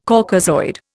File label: "Caucasoid", Indian